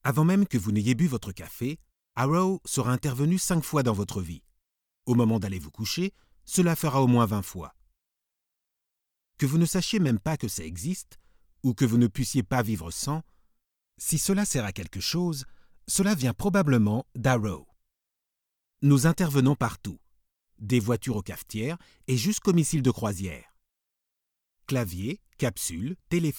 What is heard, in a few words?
character, FRENCH MALE